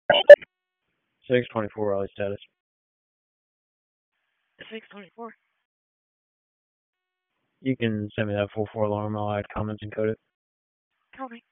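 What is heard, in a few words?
Police Southwest District Disp, radio; Raleigh; wake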